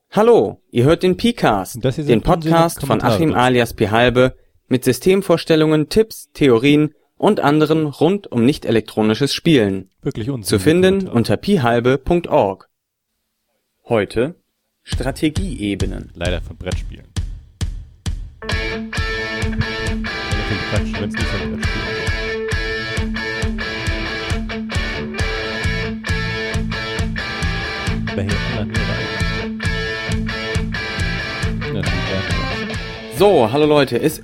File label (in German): kommentar